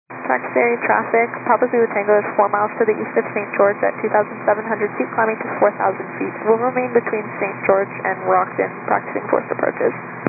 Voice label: 122 925MHz, scanner radio